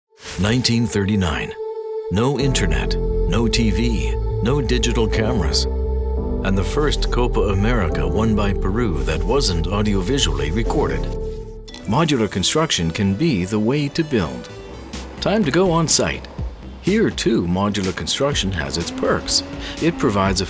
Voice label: Explainer

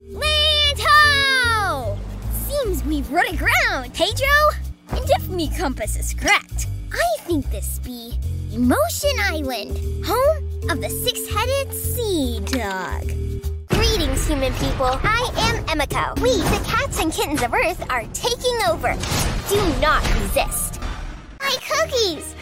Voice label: VO Animation

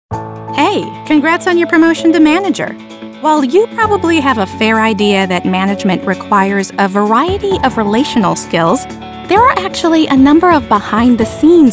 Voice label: eLearning

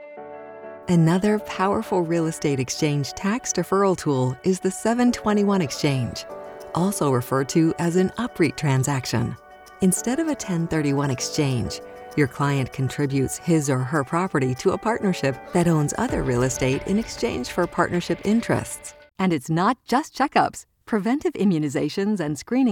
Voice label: Explainer

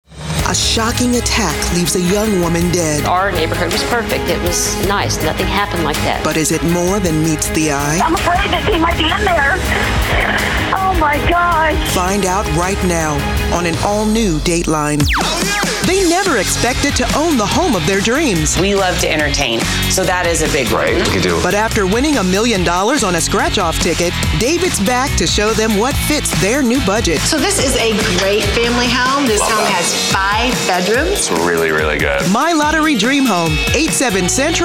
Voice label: Promo

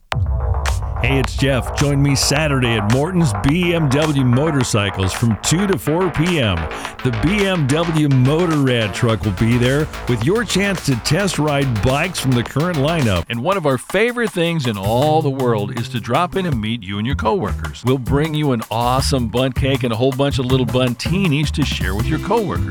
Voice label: VO Set To Music

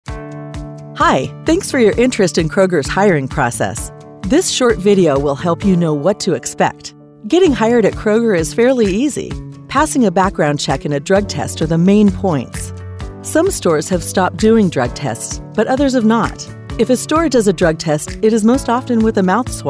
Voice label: female, E Learning

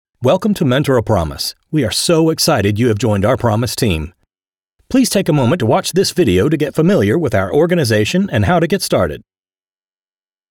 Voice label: Corporate Explainer

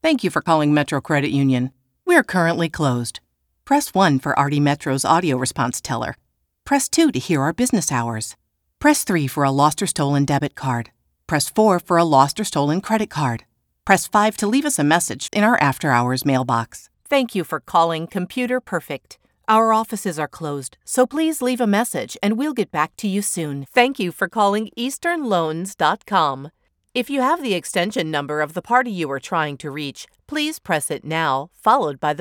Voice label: General Telephony

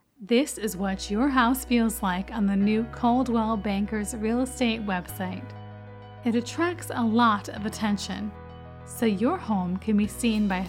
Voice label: Commercial Demo

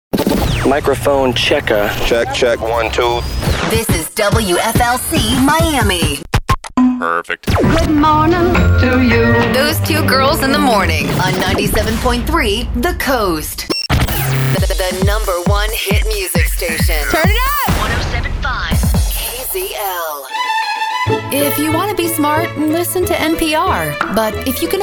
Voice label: Radio Imaging Demo

